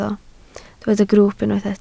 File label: "grwwp", Welsh